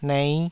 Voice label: "nei5", taiwanese syllables